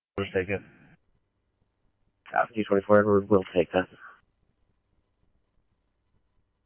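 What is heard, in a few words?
scanner, Phoenix Police Department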